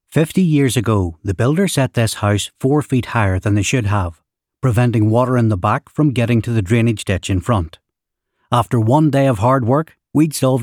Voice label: 外籍男英 外语 英式英语 稳重

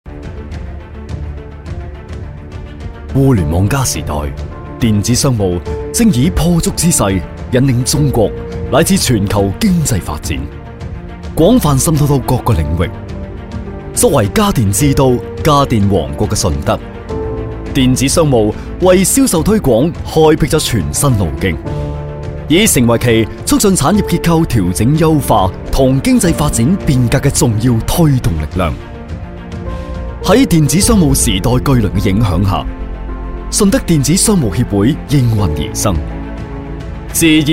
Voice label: 男粤 专题 企业 顺德电商 大气, 粤语

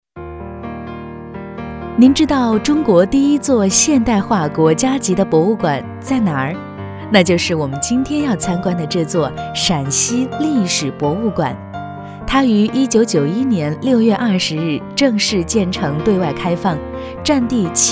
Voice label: 语音导游; 陕西博物馆

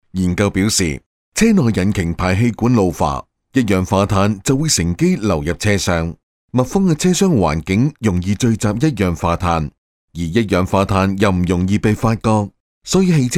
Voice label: 男粤 其他 旁白 平稳叙事, 粤语

